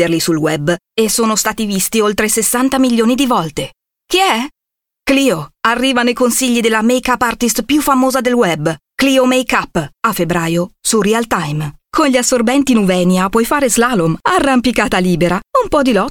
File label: italian voice over female commercial